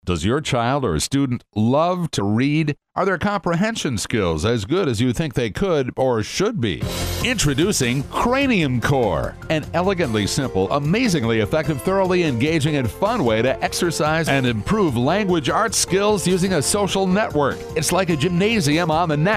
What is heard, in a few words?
radio ad